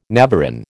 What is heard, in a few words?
"navarin", American